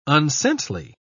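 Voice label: "unsaintly", British English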